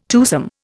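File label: "twosome", Indian English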